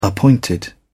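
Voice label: "appointed", British English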